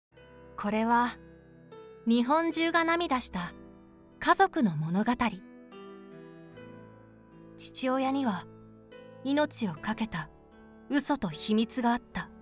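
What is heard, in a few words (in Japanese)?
NAサンプル, 声優・ナレーター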